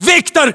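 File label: "viktor", battlechatter, Russian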